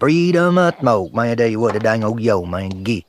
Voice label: freedomatmo man dellya yo man geet, Voice gibbrish